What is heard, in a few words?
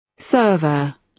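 "server", British English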